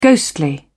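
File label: "ghostly", British English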